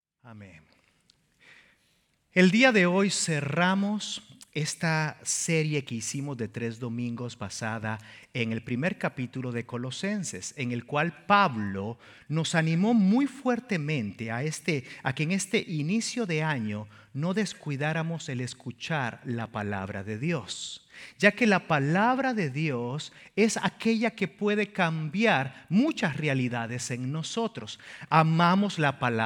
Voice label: SERMON SPA AUDIO